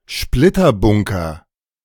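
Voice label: German "Splitterbunker"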